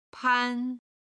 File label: "pan", Chinese